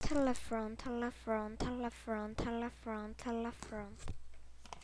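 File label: "telephone", spoken word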